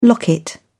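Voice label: "locket", English, British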